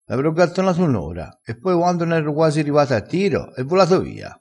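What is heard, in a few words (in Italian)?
"gattona", vocabolario dialettale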